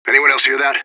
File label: radio bot hear; fbihrt